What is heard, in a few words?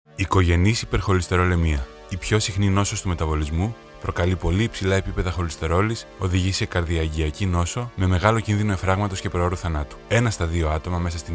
radiospot